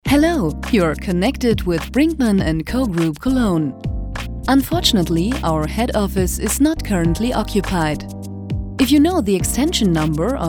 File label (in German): telefonansage eng